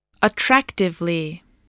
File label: "attractively", english